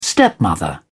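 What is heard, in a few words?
"stepmother", British English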